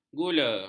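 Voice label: "gula", Malay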